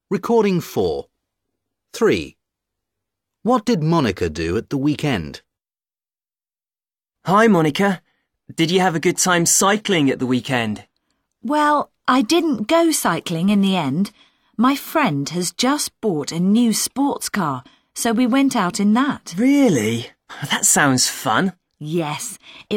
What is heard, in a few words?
dialog